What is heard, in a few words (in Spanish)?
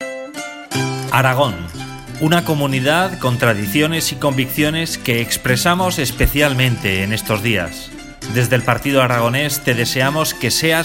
Anuncio Radio Navidad; Navidad 2017